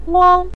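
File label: "wang" neutral tone, Mandarin Chinese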